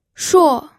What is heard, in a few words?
"shuo4", pinyin